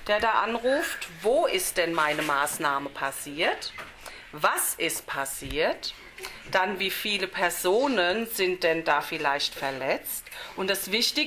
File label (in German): Feuerwehr Notruf